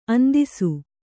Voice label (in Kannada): "ಅಂದಿಸು", Kannada